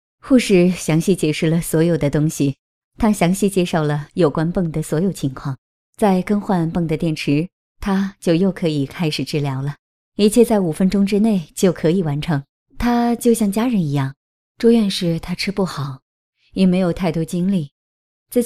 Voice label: Mandarin female, Chinese voice over